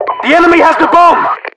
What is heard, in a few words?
bomb lost, UK; Voiceovers